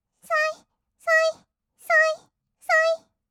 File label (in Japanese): そいそい, kawaii voice; 合いの手; 1200 kawaii voice!!; ASMR